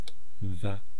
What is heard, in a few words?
"va", East Cree syllabics; language